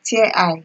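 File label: "tear eye", Nigerian Pidgin, female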